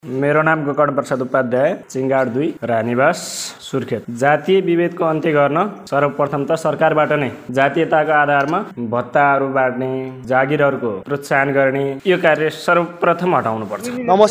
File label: vox pop